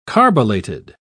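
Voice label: "carbolated", English, British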